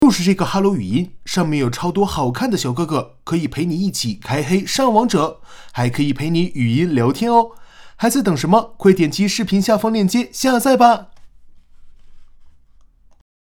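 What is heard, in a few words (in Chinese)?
素人语言平台信息流; 素人某语言平台信息流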